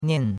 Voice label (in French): "nian4", chinois; Cours-de-Chinois